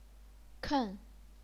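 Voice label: "ken", Chinese, fourth tone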